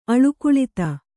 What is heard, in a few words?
"ಅಳುಕುಳಿತ", Kannada